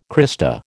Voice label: "crista", American